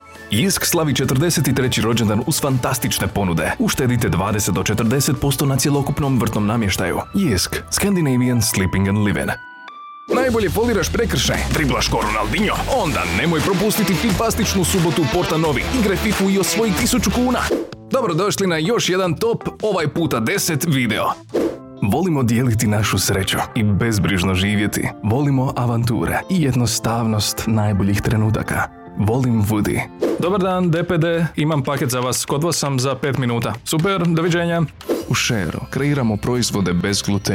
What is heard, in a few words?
croatian voiceover; montage